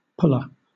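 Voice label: "pula", British English; pronunciations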